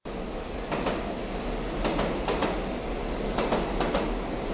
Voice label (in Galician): onomatopeia tren